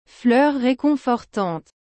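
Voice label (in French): "fleur réconfortante", French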